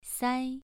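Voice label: "sai" Mandarin Chinese, second tone, pronunciation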